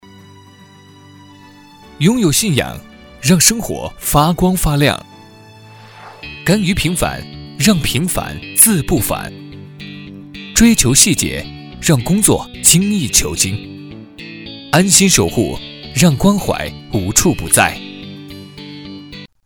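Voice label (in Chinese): 男【广告】高端走心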